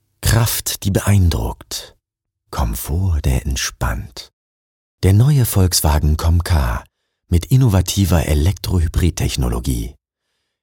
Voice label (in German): Werbung; VW